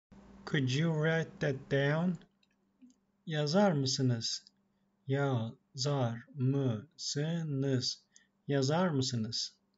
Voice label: could you write, turkish; the talking turkish phrasebook